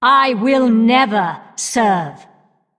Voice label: Female Undead Start